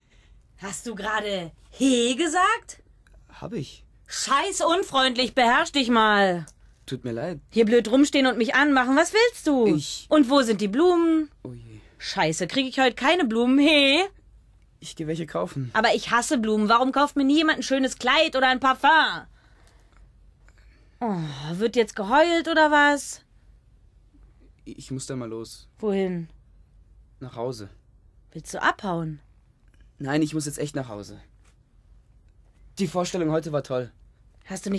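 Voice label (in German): Hörspiel Dialog; SRF; Karl Klotz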